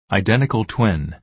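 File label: "identical twin", American English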